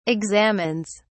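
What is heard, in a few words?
"examines", British English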